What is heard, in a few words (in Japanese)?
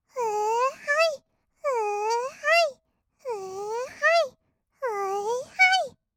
うーはい, kawaii voice; ASMR; 合いの手; 1200 kawaii voice!!